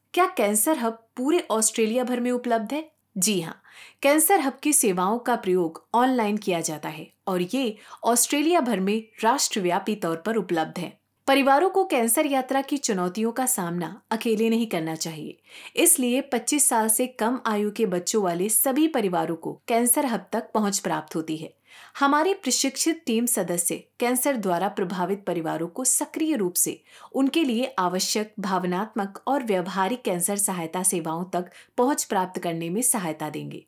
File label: Hindi; Is Cancer Hub available Australia wide